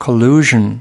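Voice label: "collusion", US English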